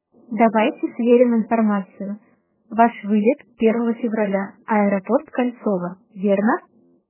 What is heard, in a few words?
brand voice sample synthesis